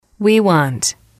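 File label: we want, female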